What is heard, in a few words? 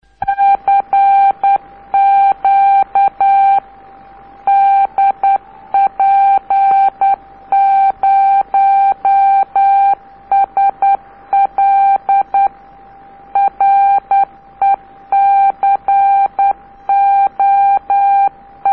cq record on tape